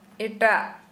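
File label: Santali female another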